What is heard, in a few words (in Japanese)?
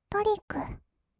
"トリック", kawaii voice; 音ゲー